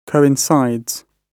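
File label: "coincides", British English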